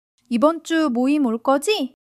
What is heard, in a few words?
joy